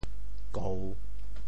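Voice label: "kou3", Teochew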